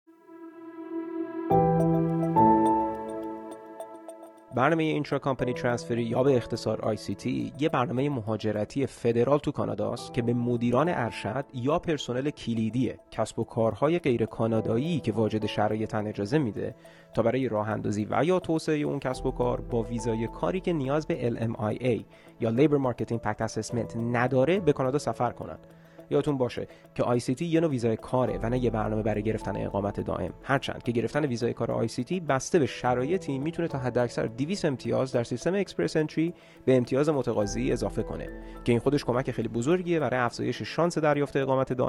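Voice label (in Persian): Farsi, voices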